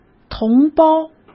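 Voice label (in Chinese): "同胞", Mandarin Chinese; chinese flashcards; hsk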